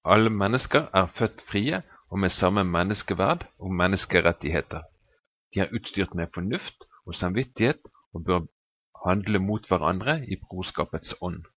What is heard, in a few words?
udhr no